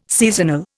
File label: "seasonal", Indian